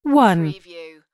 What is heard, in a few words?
US female phone prompt; lynne publishing